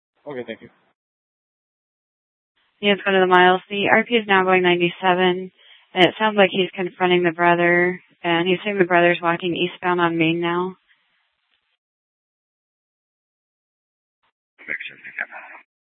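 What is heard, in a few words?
Police Department Dispatch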